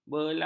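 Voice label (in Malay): "belau", Malay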